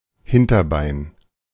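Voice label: "Hinterbein", German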